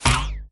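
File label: female fire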